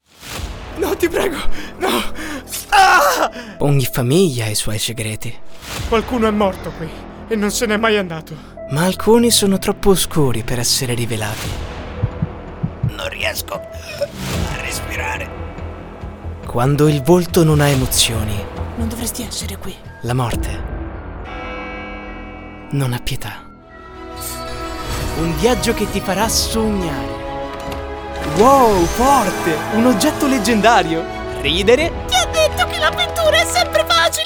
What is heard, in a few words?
Movie Trailer